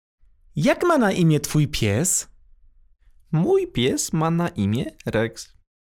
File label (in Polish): dialog